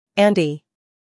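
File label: "humor", British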